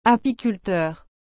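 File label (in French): "apiculteur", French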